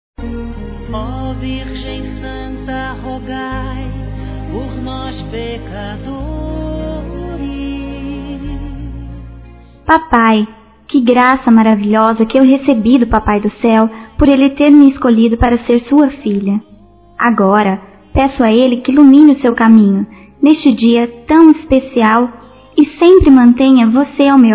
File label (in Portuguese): Aniv. Pai Religiosa Feminino, Telemensagens; Aniversário Infantil